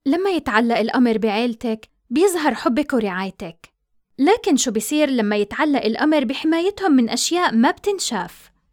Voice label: Jordanian